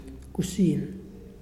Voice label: "Sit", Muyuw; Lae Unitech

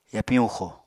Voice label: "yapiñ huxo", Apatani

